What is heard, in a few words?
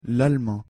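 "l'allemand", French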